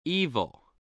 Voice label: "evil", voice, english

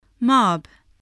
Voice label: "mob", voice, spelling

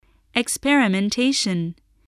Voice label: "experimentation", voice

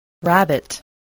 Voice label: "rabbit", english

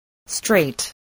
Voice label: "strait", spelling

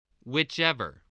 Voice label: "whichever", spelling

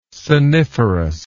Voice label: "soniferous", english

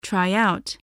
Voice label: "tryout", voice

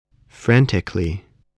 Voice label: "frantically", English voice, spelling

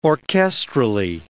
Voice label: "orchestrally", English word

